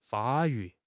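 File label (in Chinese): "发育", Chinese; HSK6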